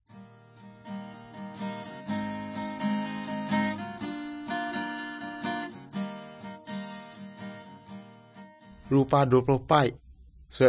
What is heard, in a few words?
good news, urak lawoi